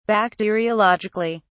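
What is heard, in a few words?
"bacteriologically", British English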